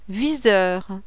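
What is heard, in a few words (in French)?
"VISEUR", French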